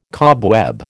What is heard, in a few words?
"cobweb", American